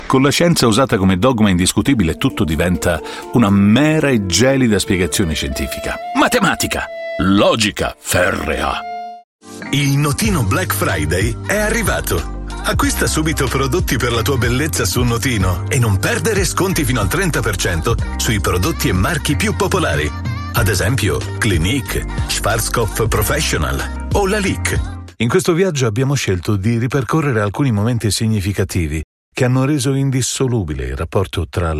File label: spanish, voiceover